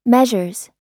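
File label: "measures", American English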